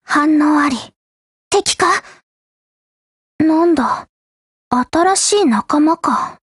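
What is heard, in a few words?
build over, Japanese; HK33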